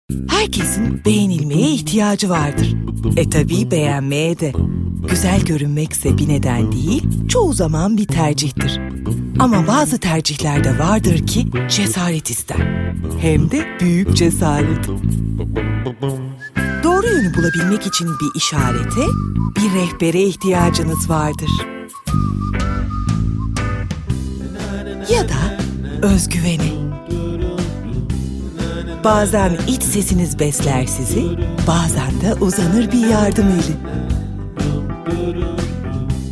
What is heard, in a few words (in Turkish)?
enerjik esprili